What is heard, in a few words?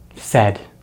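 "said", American English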